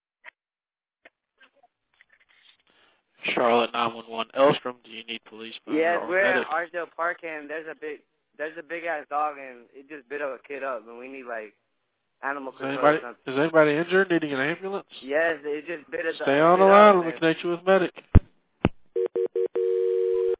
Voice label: dog bite 911 call; 9-Year-Old Attacked by 2 Dogs in Charlotte; WCCB Charlotte